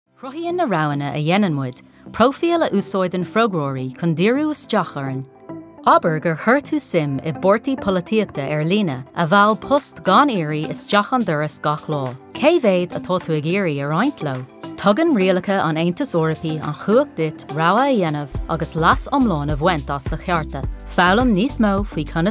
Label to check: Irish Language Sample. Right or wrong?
Right